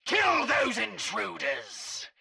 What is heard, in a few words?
Scientist Caster Chokepoint Line; NPC; Heist Cultist Chokepoint